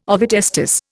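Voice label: "ovotestis", Indian English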